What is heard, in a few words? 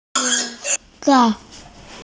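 "school", Mandarin, hearing-impaired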